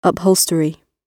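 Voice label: "upholstery", British English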